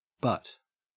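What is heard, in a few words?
"but", Australian English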